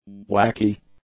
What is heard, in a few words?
"wacky", English; adjectives